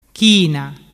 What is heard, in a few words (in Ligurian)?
"china", dialettu